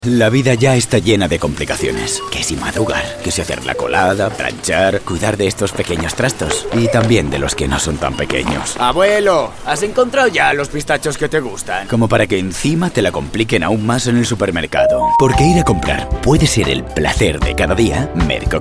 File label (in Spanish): Masculino; Sonoarte